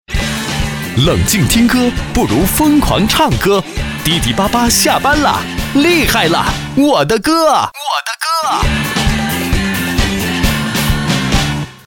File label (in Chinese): 电台; 厉害了-我的歌